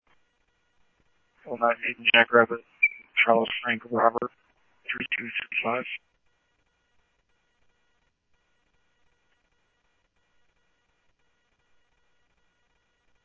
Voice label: Police, scanner audio trunk